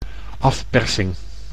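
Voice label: "afpersing", Dutch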